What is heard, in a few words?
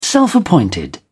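"self appointed", British English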